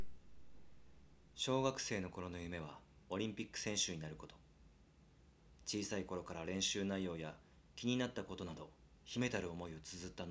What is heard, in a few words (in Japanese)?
ナレーション